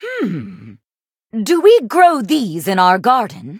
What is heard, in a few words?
levelup, en; Cookie Run